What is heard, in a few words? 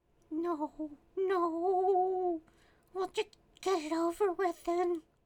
Voices Female Beggings